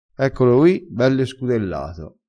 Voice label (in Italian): "scudella", vocabolario dialettale